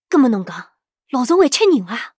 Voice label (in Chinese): 上海人; 上海人B